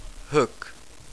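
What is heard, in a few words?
"hook", English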